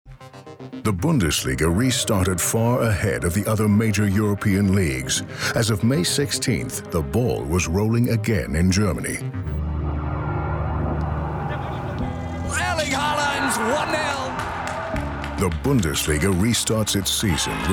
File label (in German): DOKU